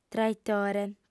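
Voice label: "traitore", Sardinian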